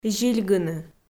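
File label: "зильгыны", Komi-Zyrian, female; audio dict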